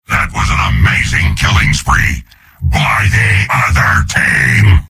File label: soldier jeers; mvm